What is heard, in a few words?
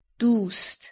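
"dust", Persian, female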